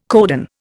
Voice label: "codon", Indian